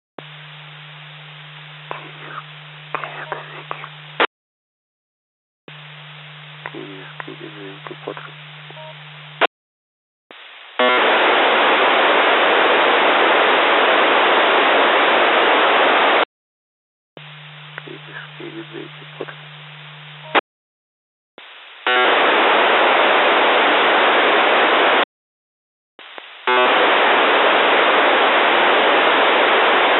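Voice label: Whispering, Satcom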